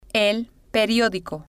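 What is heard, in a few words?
"el Periodico", Spanish, female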